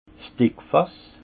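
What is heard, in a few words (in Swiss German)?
"dickfass", Haut Rhin; vin; barrique 225 l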